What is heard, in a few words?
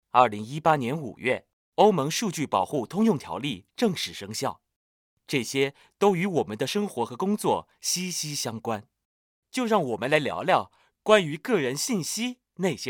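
Chinese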